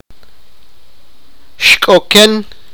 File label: sipakapense; long ago